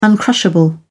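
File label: "uncrushable", British English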